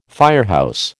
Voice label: "firehouse", American

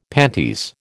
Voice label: "panties", American

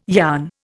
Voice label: "yarn", Indian

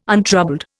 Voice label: "untroubled", Indian

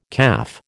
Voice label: "CAF", American

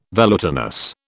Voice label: "velutinous", British

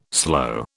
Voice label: "slow", British

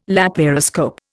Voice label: "laparoscope", Indian

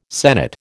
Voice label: "sennit", American

